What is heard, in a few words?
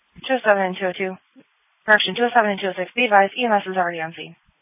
Police Dispatch